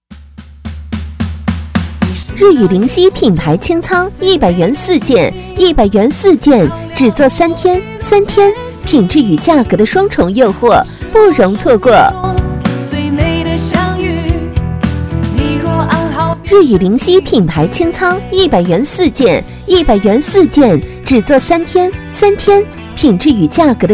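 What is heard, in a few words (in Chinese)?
女促销; 芮雨聆夕品牌清仓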